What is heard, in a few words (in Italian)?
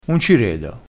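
"rede", vocabolario dialettale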